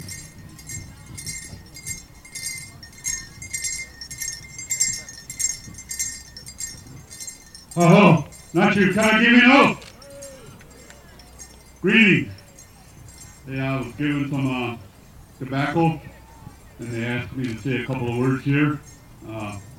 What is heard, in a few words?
indian speach